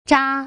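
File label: "zha", Mandarin Chinese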